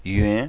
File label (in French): "hen", voyelles nasal; ɥẽ